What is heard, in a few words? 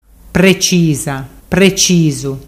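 "precisa precisu", Ligurian dialect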